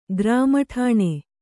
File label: "ಗ್ರಾಮಠಾಣೆ", Kannada; grāmaṭhāṇe; noun